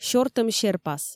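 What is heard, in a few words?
"сёртӧм серпас", Komi, female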